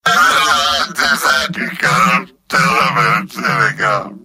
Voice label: gibberish